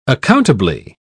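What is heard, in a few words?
"accountably", British English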